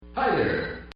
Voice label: Man Says HI THERE; Partners In Rhyme